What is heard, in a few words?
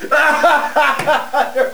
Cheer; Tourettes Guy